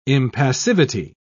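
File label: "impassivity", British English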